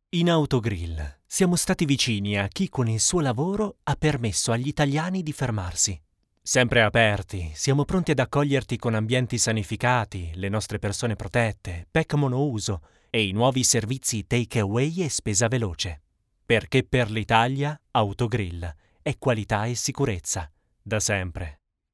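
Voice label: Italian, male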